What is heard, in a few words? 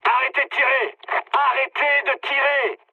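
player friendly fire, mood high, French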